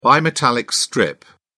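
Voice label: "bimetallic strip", English, uk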